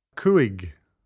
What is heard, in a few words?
"cuig", Irish